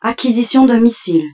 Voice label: French

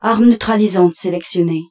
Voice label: French

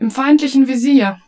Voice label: German, Lockon

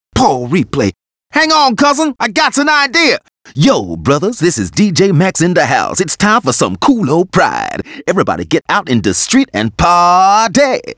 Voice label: Bonus uk; arthur and the revenge of maltazard; Wii

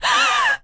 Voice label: Enemy Generic Human Spawn Disbelief

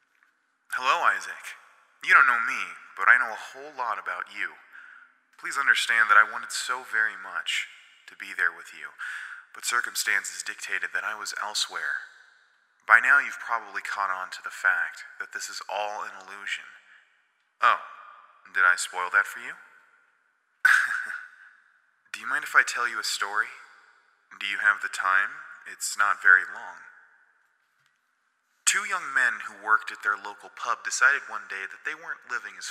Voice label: Voice Radio; Light of the Mountain

